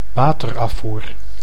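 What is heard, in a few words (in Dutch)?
"waterafvoer", Dutch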